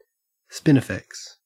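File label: "spinifex", Australian English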